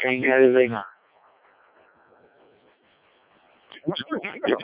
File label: decoded speech, parrot